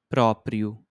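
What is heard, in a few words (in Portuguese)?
"próprio", português europeu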